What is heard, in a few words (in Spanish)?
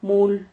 "mul", Silabas